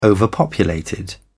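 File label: "overpopulated", British English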